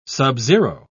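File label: "subzero", British English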